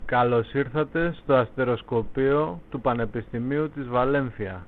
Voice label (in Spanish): griego